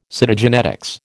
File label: "Cytogenetics", American